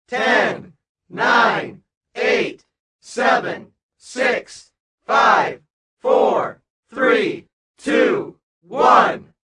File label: Crowd Counting Down, Small crowd counting down, numbers from 10 to 1.; Crowd Sound Effects; Lynne Music